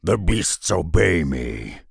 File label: pissed; Ogre beastmaster